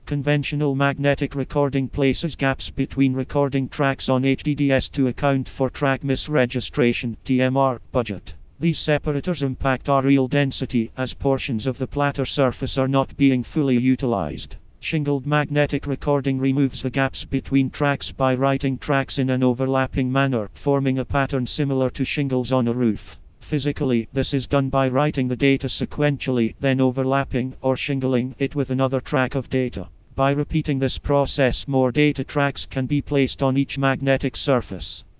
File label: rhvoice test